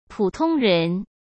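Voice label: "普通人" Mandarin Chinese; chinese flashcards; hsk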